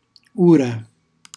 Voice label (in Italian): "ura", Calabrese; ora; cucinacalabrese; glossario